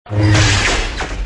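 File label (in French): "oulala", French; Contacts avec Sabre Laser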